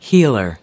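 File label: "healer", American English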